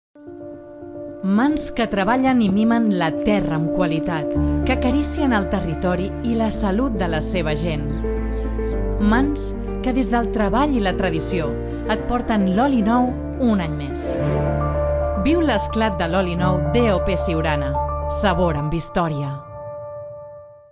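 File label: Catalan, Commercial; GoLocalise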